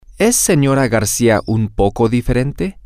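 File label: is different, Spanish, male; is Mrs Garcia different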